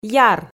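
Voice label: "яр", Komi, female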